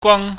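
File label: "gwang", Cantonese, high falling tone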